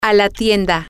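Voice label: to The Store, Spanish, female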